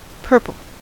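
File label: "purple", American English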